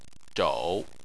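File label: "zhou", Mandarin Chinese, third tone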